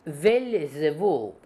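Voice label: "Beelzebub", Russian; Fourth Way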